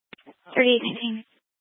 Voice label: Police Department Dispatch; Grand Junction

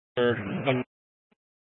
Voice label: Incident Tac, scanner; Incident Tac 1

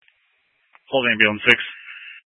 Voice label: Grand Junction Fire Department Dispatch

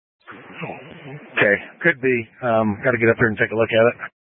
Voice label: Incident Tac, scanner

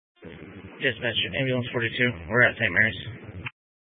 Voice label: Fire Dispatch, scanner audio

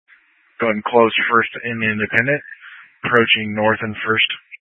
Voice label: Tactical Incidents, scanner

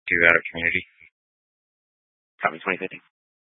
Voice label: Fire Dispatch, scanner

